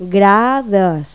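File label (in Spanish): "gradas", Spanish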